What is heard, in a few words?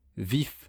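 "vif", French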